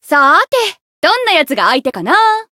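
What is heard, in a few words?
encounter, Japanese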